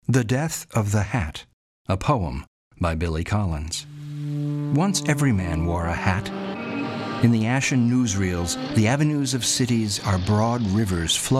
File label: audiobook